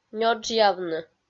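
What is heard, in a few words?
"нёджъявны", Komi, female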